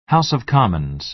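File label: "house of commons", American English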